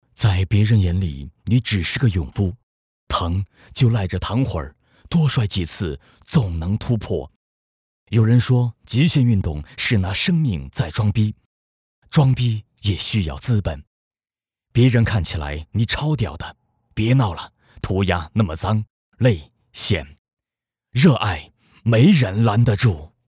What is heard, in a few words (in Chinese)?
男 热爱没人拦得住